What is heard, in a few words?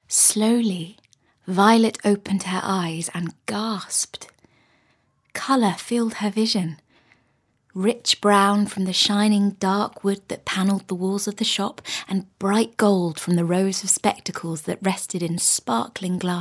AUDIOBOOK NARRATION